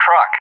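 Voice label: "truck" English, speech; JTAC; DCS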